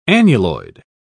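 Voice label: "annuloid", British English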